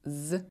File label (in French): son z, russe